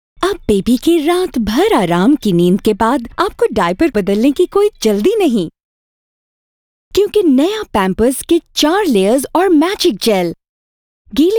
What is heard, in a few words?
Urdu VO; Pampers Super